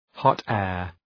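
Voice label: "hot air", English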